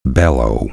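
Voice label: "bellow", spoken word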